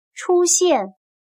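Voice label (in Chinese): "出现" Mandarin Chinese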